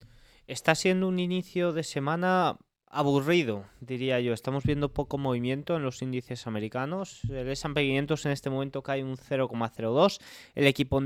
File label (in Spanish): radio; noticias bolsa